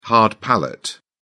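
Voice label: "hard palate", British English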